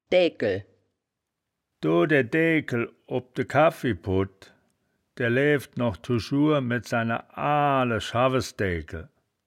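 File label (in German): "daeaegkel", wittlicher; Däägkel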